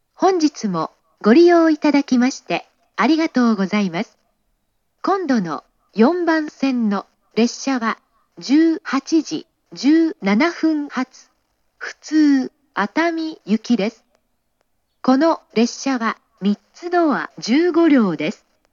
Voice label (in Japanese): 次発放送, 自動放送, 女性; 18：17発 普通 熱海行（3ドア15両）の自動放送です。; 東海道線; 熱海・伊東・沼津方面; ATOS（旧型）; 根府川